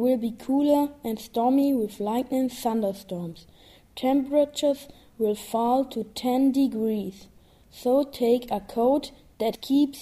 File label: weather forecast; englisch